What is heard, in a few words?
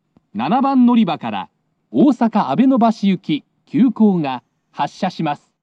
dep exp abenobashi, kintetsu st announce; kashiharajingu st